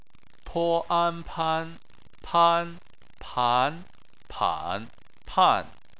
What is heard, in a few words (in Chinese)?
"pan", Chinese